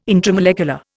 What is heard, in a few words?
"intramolecular", Indian